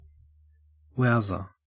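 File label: "wowser", Australian English; pronunciations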